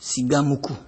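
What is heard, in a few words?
"cigamuku", Nigerian Pidgin, male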